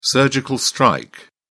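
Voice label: "surgical strike", British English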